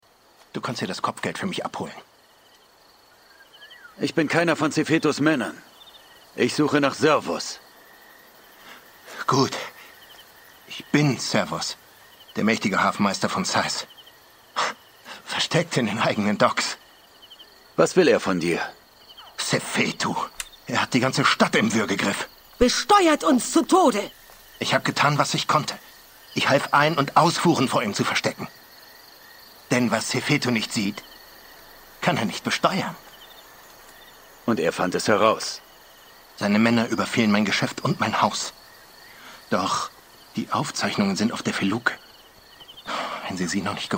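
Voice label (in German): computer spiel, sprecher; assassins creed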